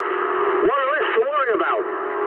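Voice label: English player killed enemy mood high; War Thunder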